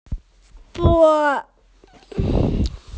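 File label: "po1", Mandarin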